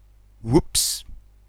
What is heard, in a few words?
oops accent